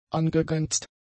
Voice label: "angegrenzt", German; adjectives

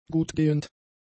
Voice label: "gutgehend", German; adjectives

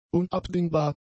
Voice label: "unabdingbar", German; adjectives

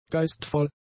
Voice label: "geistvoll", German; adjectives

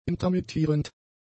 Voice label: "intermittierend", German; adjectives

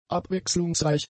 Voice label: "abwechslungsreich", german; adjectives

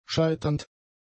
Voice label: "scheiternd", german; adjectives

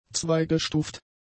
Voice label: "zweigestuft", German; adjectives

